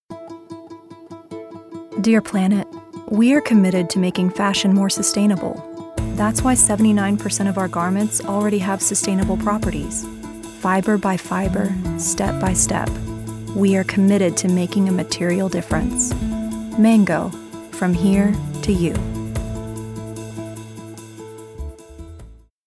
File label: Gentle